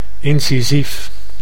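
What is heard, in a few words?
"incisief", Dutch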